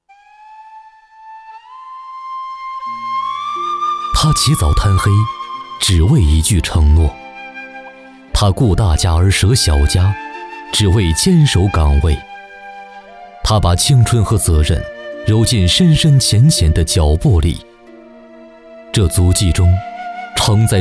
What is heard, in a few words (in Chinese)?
男 专题解说词 低沉感动 人物纪实片文案